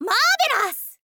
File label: "marvelous", girl; voice